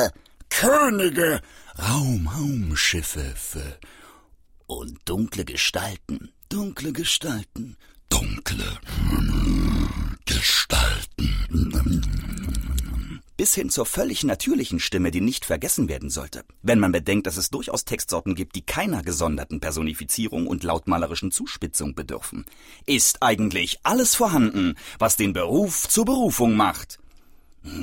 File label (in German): stimmenmorphing vielfalt power improvisation; LOFT VOICES